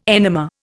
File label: "enema", Indian